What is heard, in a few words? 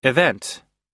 "event" us; (重要な)出来事、事件、行事